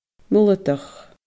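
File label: "muladach", Scottish Gaelic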